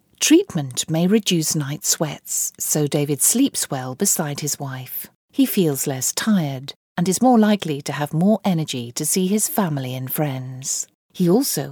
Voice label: Promotion